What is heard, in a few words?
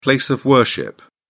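"place of worship", British English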